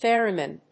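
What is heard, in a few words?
"theremin", English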